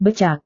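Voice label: "becak", Indonesian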